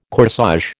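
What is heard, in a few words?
"corsage", American English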